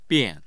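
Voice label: "bian", Chinese, fourth tone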